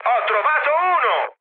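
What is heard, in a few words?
Italian player killed enemy mood high, speech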